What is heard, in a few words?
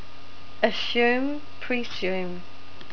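"assume", New Zealand English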